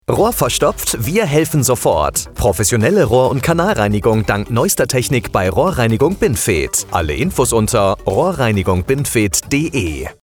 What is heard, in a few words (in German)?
radio werbung